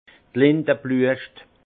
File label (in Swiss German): "linde bluescht", alsace; vin; tilleul